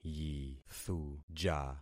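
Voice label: "yithuja", concatenated syllables; Affixing experiment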